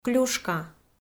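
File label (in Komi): "клюшка", Komi, female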